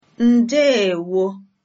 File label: hello, igbo; Ndeewo